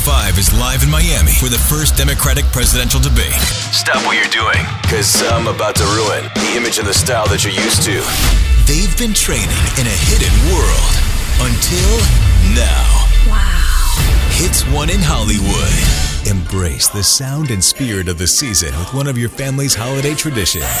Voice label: radio

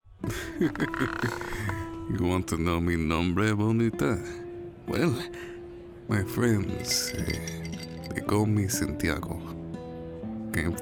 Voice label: Accent, demo

